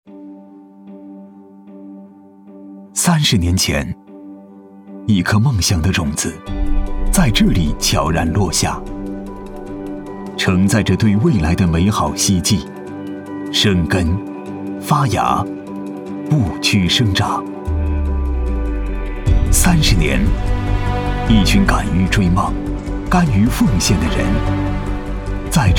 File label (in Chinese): 男,走心旁白,汇报; 大蜀山汇报